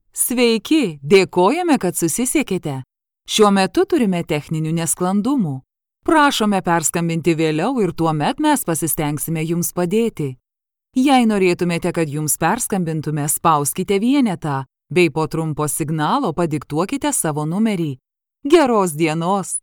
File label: home studio sample